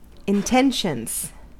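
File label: "intentions", US English; pronunciations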